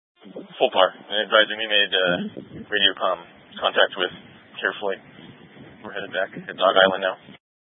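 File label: Incident Tac 1, Scanner audio recording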